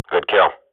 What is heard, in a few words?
radio bot nice shot, Counter-Strike swat; Global Offensive; player vo